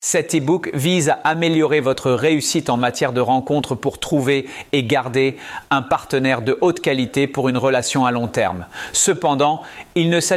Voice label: French